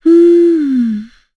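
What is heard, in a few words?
Vox Think, Korean; Yuria; kings raid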